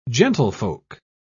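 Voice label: "gentlefolk", British English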